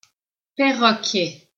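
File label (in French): "perroquet", French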